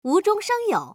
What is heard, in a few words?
female